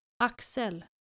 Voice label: "axel", nordische jungennamen

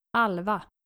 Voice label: "alva", skandinavische maedchennamen

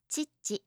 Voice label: "chichi", japanische maedchennamen

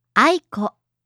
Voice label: "aiko", japanische maedchennamen

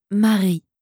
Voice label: "marie", franzoesische maedchennamen

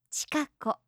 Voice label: "chikako", japanische maedchennamen

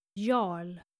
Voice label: "jarl", nordische jungennamen

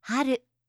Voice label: "haru", japanische maedchennamen

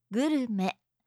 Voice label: "gurume", japanische maedchennamen

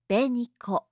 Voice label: "beniko", japanische maedchennamen

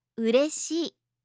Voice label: "ureshii", japanische

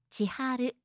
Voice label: "chiharu", japanische maedchennamen

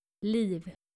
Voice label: "liv", skandinavische maedchennamen

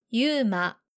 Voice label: "Yuma", japanische Jungennamen

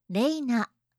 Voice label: "reina", japanische

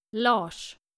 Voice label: "lars", nordische jungennamen

